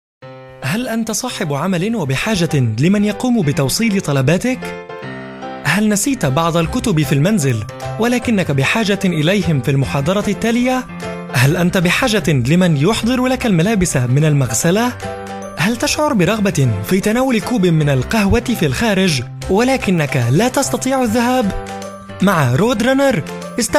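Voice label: Arabic, male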